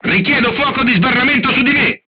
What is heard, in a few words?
voice message art command oneself, crew tanks italian